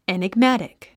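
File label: "enigmatic", English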